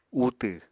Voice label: "utw", Apatani

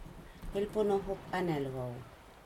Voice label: canoe front, Aneityum

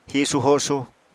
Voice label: "hisu-hosu", apatani

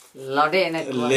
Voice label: Gta Birds darter, Dialect: Hill; Anhinga melanogaster, darter; Tikarpoda

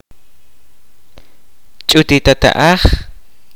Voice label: "mother's younger brother", kaqchikel

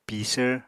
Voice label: "pisvr", Apatani

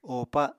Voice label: "óòpa", Apatani

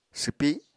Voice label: "swpi", Apatani

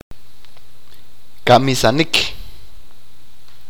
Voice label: kill, achi

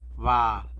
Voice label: "bal", tlacolula; flame

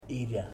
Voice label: "tree", Ipili

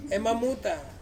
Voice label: "mamuta", Ansus; to vomit

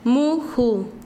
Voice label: "semilla", Quechua Chanka

